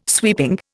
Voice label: "sweeping", Indian